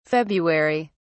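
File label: "february", British English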